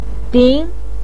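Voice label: "ding" second tone, Mandarin Chinese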